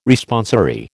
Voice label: "Responsory", American

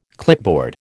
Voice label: "clipboard", American

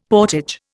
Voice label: "portage", Indian; Dictionary

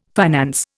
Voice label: "finance", Indian

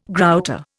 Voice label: "grouter", Indian

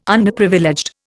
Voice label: "Underprivileged", Indian

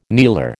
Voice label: "kneeler", American

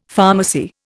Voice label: "pharmacy", Indian; Dictionary

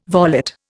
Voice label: "volet", Indian

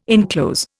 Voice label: "inclose", Indian

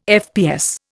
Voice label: "FPS", Indian

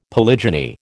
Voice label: "polygyny", American

